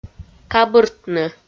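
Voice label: "кабыртны", Komi, female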